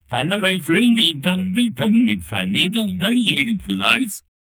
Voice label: calm voice of the preside, politics

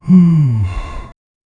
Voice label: a very soft subdued male, pixel game

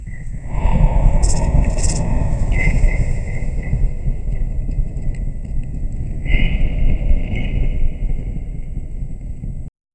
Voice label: whispering demonic voice, chamber

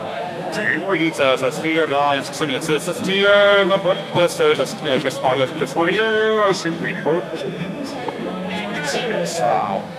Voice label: interviewers at a press c, interview

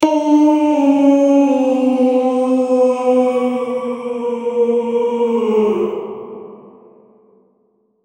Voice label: a dissonant santa claus h, robotic

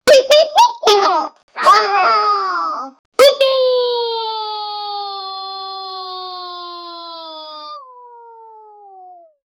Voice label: generate a pack of funny, gibberish

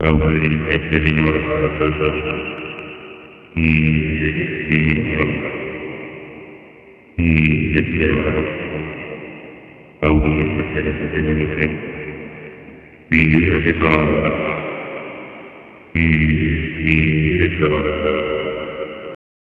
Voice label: the male voice is deep, robotic

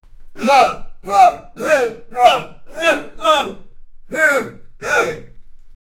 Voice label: the sound of two men, aggression

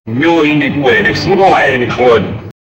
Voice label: announcement by a mexican; boxing

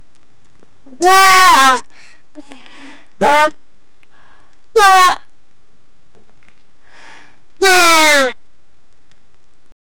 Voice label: ouch, pain

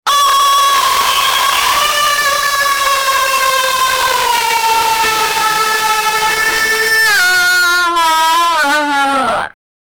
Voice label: the persons phrase is wha, screaming

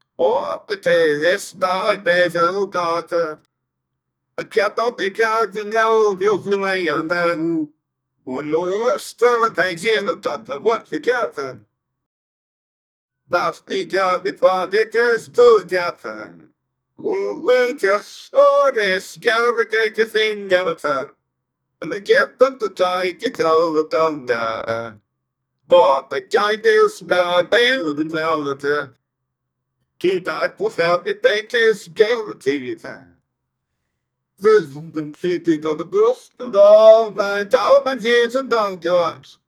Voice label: cartoon american man fals; character voices

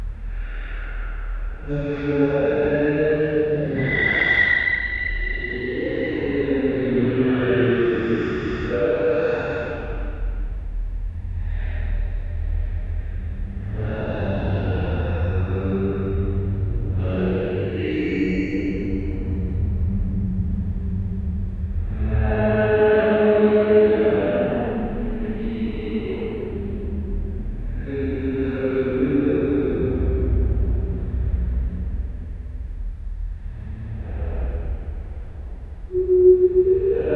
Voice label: muffled voices from apart

